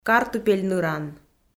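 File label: "картупель ныран", Komi, female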